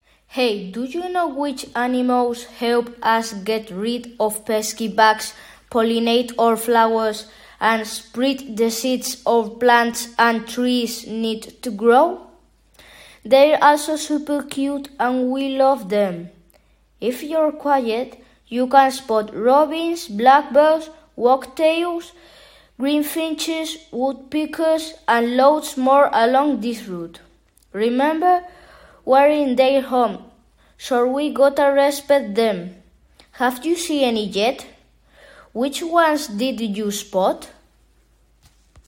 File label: English Birds